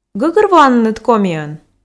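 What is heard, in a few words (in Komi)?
гӧгӧрвоанныд комиӧн, Komi, female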